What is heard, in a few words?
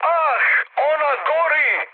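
Serbian on fire mood high, speech; War Thunder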